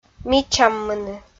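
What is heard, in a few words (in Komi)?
"мичаммыны", Komi, female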